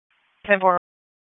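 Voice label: Dispatch 1, P25; WSO DISP 1; wake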